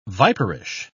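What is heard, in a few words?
"viperish", English, British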